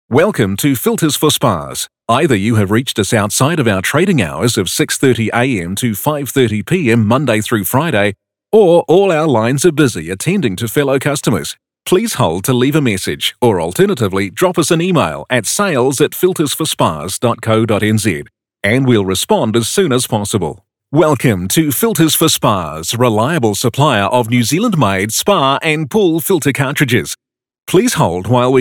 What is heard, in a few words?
NZ PHONE